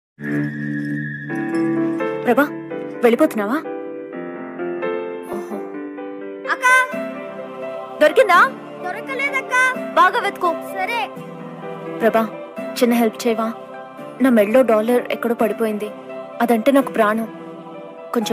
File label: lovely dialogue romantic love telugu; darling; prabhas; darling movie